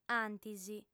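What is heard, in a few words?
"antis", lingua sarda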